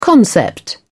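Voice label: "concept", British English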